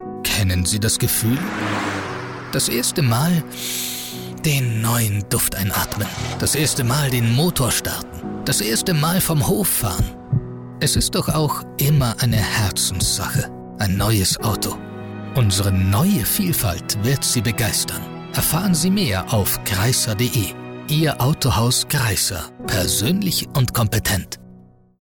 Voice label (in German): Werbung Autohaus; autohaus kreisser